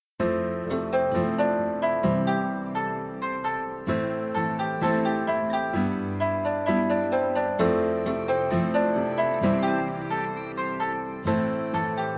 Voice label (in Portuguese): aniversario NEUTRO MASC, telemensagem aniversario neutro